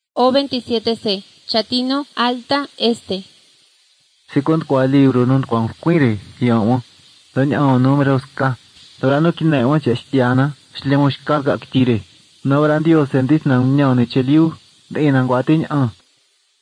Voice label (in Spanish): spanish mexico